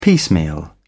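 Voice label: "piecemeal", British English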